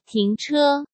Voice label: "停车", Chinese; Chinese Grammar Wiki

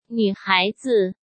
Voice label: "女孩子", Chinese; Chinese Grammar Wiki

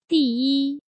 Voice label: "第一", Chinese

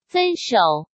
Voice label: "分手", Chinese

